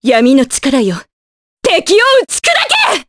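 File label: Vox Skill Japanese; Maria; kingsraid; jp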